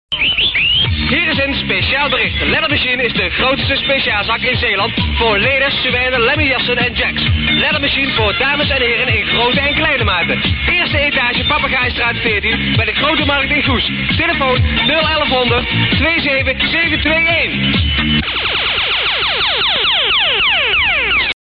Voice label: commercial